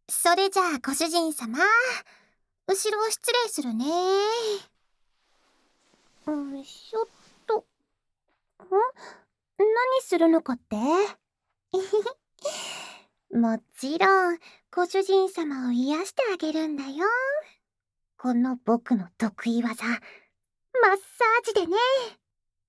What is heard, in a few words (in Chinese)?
主人，我来给你按摩哦, ASMR